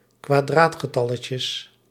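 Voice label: "kwadraatgetalletjes", Dutch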